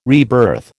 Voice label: "rebirth", American

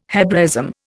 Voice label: "Hebraism", Indian

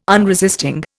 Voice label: "unresisting", Indian

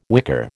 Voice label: "wicker", American

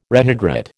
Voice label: "rehydrate", American

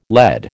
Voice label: "LED", American

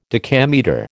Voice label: "decametre", American

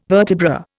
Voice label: "vertebra", Indian

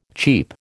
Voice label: "cheep", American

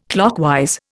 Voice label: "clockwise", Indian

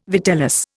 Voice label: "vitellus", Indian

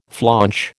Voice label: "flaunch", American

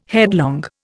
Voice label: "headlong", Indian

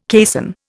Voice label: "caisson", Indian

